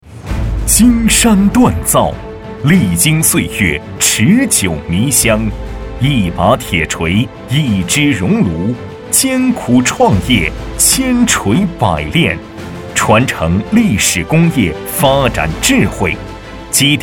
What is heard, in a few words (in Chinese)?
男 专题 大气, 国语男声配音 大气浑厚; 金山制造